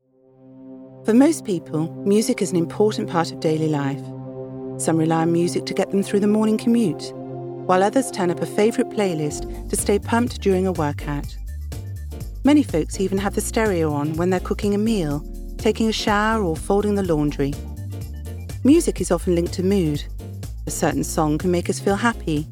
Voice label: Documentary